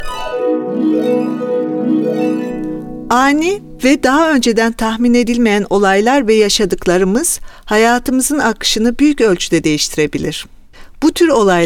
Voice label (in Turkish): Türkçe